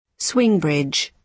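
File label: "swing bridge", British English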